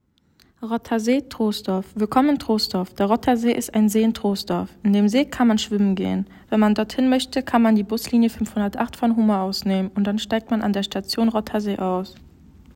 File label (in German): deutsch; Rotter See